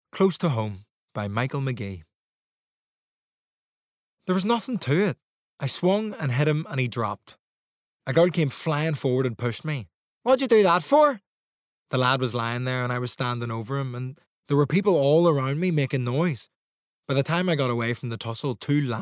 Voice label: Audiobook; Close To Home

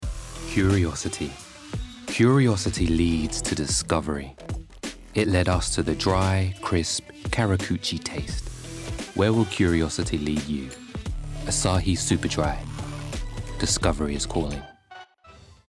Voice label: Commercial; Asahi

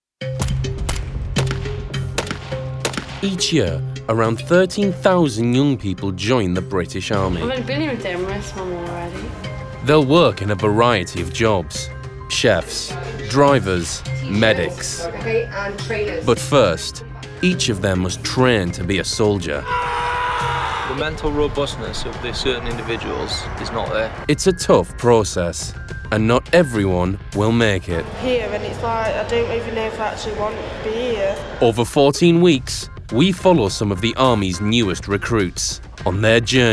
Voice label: Documentary; British Army

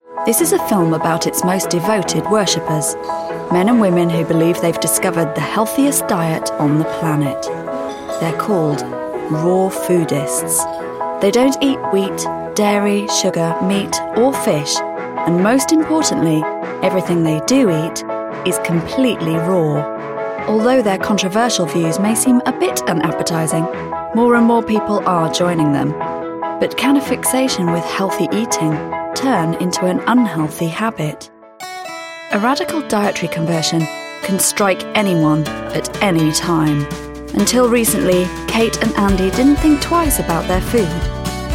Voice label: documentary; Raw Foodists

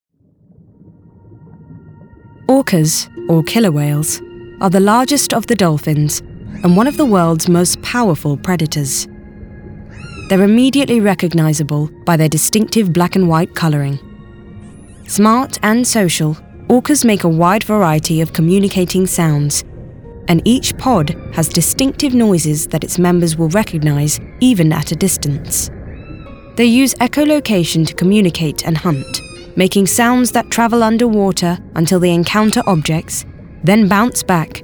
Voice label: narration

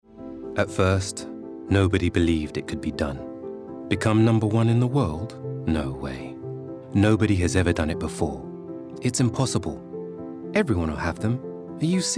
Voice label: Corporate; Hnda

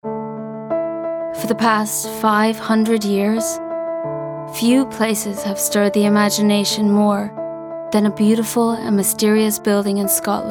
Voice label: Narration

